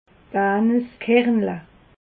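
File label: "aneskernle", Alsatian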